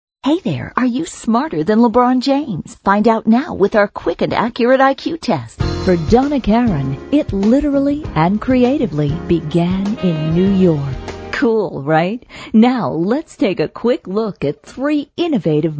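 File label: American English, female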